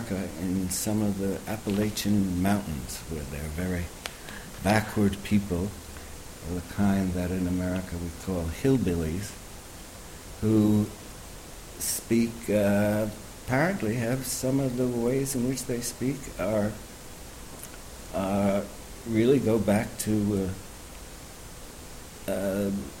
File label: old modern english